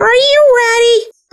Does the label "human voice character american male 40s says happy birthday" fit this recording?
No